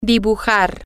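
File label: to draw, Spanish, female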